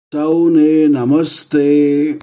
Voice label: Gujarati Greetings everyone